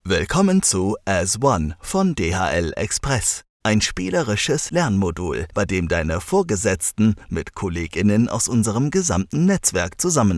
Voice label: German Corporate